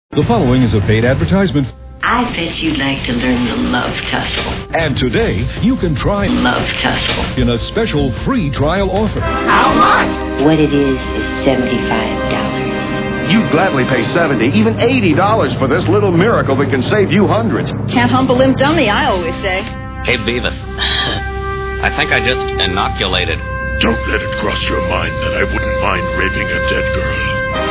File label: Commercials; Love Tussle; Freekin Weird Audio